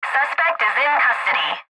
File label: Police Dispatch Female- Suspect Is In Custody; Radio Dispatch; Emergency